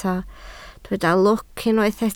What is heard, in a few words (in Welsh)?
"lwc", Welsh